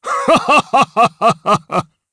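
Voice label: Vox Happy, Japanese; Dakaris; kingsraid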